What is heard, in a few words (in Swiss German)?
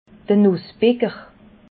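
"nussbicker", Alsatian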